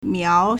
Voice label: "miao2", Chinese